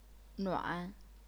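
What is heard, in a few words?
"nuan", third tone, chinese pinyin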